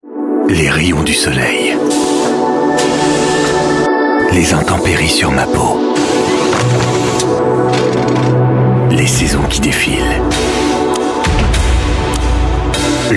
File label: french voice over male narrator